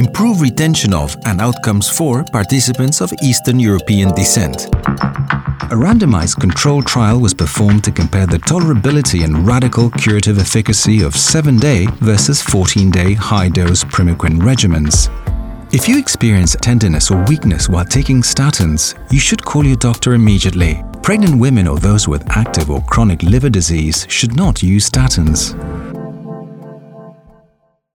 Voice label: English e-learning